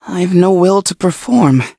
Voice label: defeat, en; cookierun

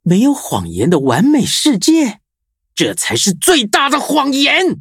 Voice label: levelup zh-hant

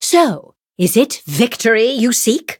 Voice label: levelup, en; Cookie Run

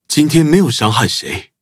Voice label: levelup zh-hant; Cookie Run

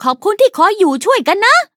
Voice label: level up, th; Cookie Run

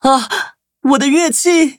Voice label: defeat, zh-hant

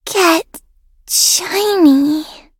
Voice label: victory, en

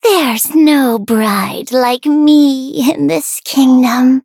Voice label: touch, en; cookie run

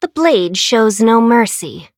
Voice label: touch, en; Cookie Run

